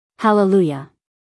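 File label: "hallelujah", American English, female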